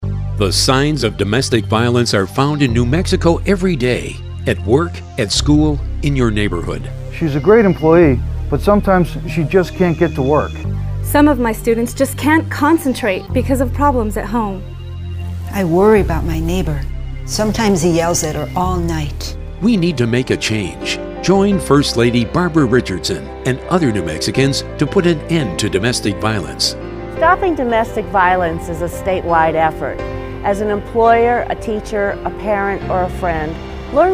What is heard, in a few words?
Radio English; CYFD